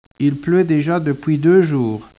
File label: speech, French